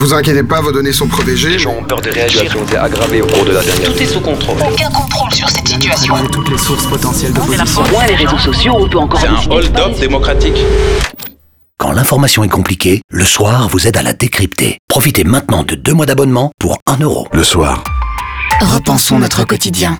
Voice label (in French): fr radio